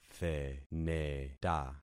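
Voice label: "theneta", concatenated syllables; Affixing experiment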